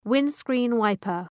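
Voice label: "windscreen wiper", English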